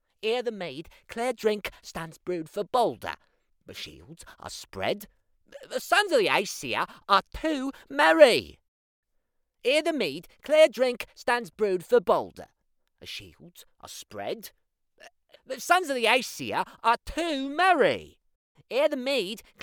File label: Essex